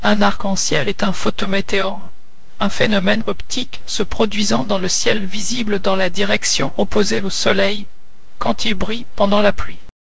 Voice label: speaker, fr FR; piper voices